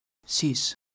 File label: "sis", Catalan